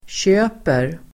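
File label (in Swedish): "köper", Swedish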